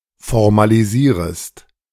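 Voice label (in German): "formalisierest", German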